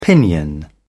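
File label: "pinion", British English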